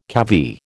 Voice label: "cavy", American

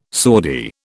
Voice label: "Saudi", British

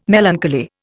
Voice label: "melancholy", Indian

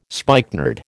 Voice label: "spikenard", American

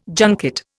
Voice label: "junket", Indian

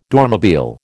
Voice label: "Dormobile", American

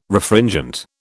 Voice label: "Refringent", British

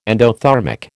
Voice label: "endothermic", American

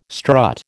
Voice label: "strut", American

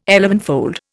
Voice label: "elevenfold", Indian

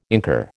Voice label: "incur", American

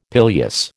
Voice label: "pileus", American

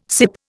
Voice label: "sip", Indian

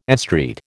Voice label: "estreat", American

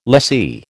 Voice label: "lessee", American

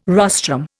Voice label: "rostrum", Indian

